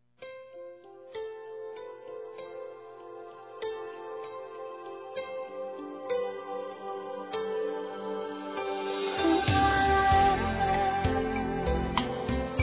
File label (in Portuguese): Aniversário Neto Feminino; Aniversários; Aniv Familiar